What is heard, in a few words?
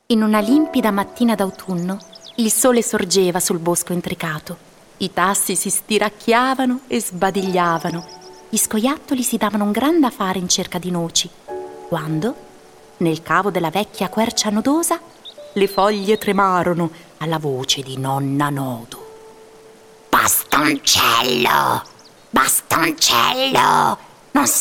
italian narration